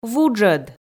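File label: "вуджӧд", Komi, female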